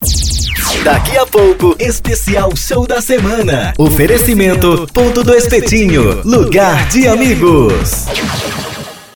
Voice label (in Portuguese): VOZ IMPACTO GRAVE vinheta oferecimento para radio; programacao de radio vinhetas